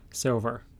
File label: "silver", American, Conversation